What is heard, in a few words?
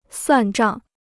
"算账", Chinese